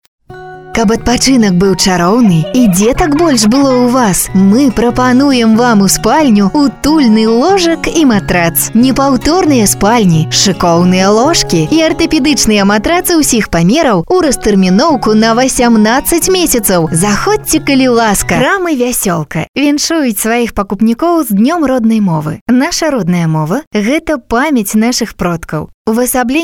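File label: Belarusian language voice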